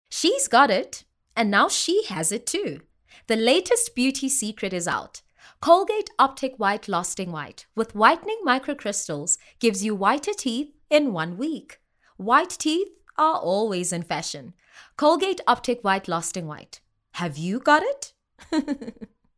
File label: English Bright